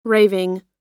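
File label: "raving", US English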